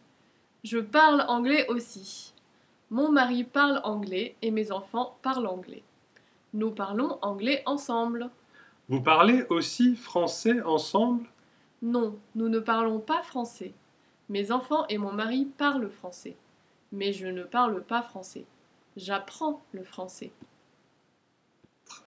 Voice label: dialogue parler, French; er verbs